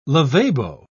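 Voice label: "lavabo", British English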